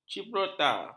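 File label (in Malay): "chipolata", Malay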